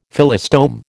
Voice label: "Phyllostome", American